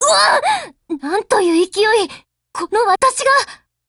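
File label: Japanese, Voice; BREAK; GF Alarm; NS2000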